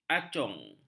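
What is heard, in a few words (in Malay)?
"acung", Malay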